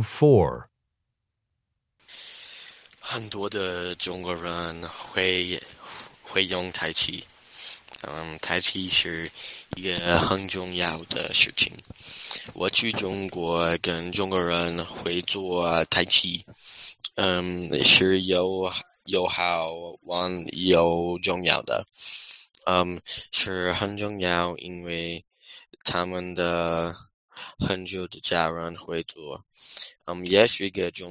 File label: Chinese cultural presentation; AP Chinese Language and Culture